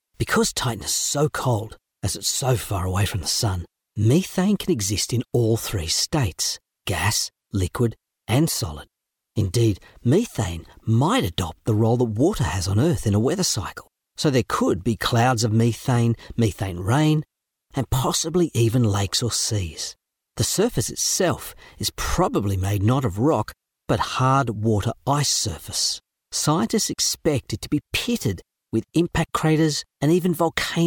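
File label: Australian English, male; voice over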